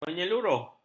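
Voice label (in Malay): "menyeluruh", Malay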